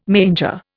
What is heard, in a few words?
"manger", Indian